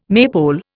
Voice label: "maypole", Indian

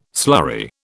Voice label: "slurry", British

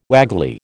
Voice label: "waggly", American

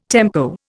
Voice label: "tempo", Indian

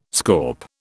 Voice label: "scaup", British

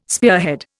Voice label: "spearhead", Indian

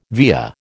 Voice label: "via", American

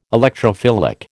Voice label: "Electrophilic", American

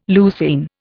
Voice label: "leucine", Indian

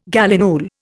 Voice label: "gallinule", Indian

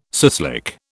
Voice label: "suslik", British

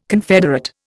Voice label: "confederate", Indian

